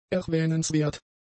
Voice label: "erwähnenswert", German; adjectives

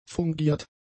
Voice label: "fungiert", German; adjectives

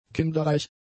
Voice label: "kinderreich", German; adjectives

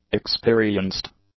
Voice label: "experienced", english; adjectives

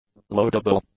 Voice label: "loadable", english; adjectives

